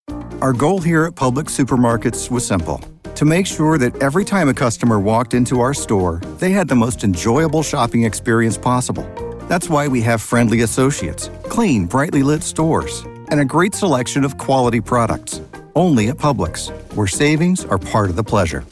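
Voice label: voiceover commercial conversational, Male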